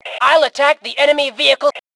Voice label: Self Attack Vehicle, Female; T2 Female 04